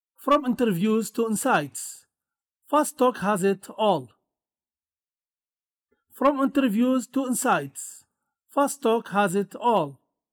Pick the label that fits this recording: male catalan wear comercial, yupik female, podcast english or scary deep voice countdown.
podcast english